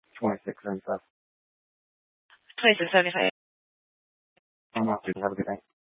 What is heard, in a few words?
Sheriff Dispatch, scanner